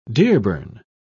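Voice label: "dearborn", British English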